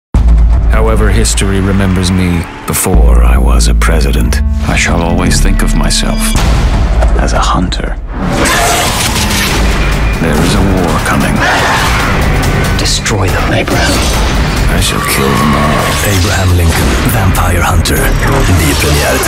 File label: swedish movie trailers